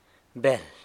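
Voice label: "beld", San Lucas Quiaviní Zapotec; fish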